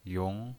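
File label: "yong" Mandarin Chinese pinyin voice, second tone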